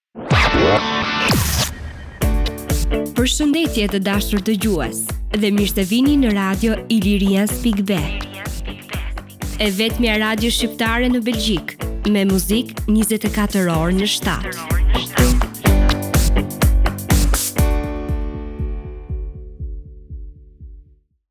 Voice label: radio female samples